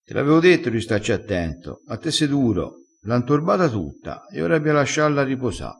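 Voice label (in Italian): "intorba", vocabolario dialettale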